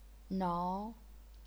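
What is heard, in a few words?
"nao", second tone, chinese pinyin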